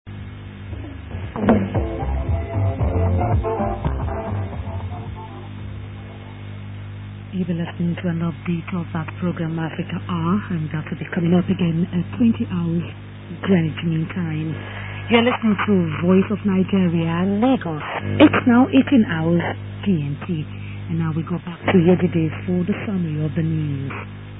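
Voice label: voice of nigeria 15120 kHz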